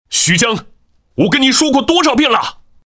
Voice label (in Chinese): 男 角色配音 青年男声